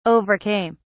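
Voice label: "overcame", British English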